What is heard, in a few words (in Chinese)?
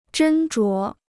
"斟酌", Chinese